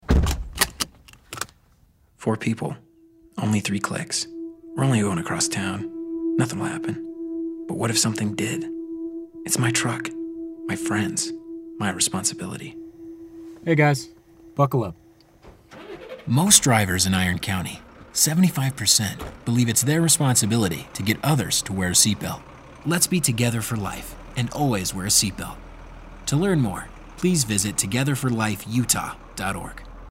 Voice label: Radio Adult